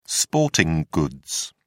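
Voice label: "sporting goods", British English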